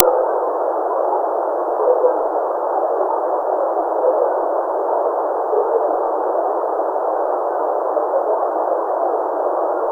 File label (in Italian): Voci che sembrano ripetere le stesse parole